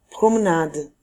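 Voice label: "promenade", French; famille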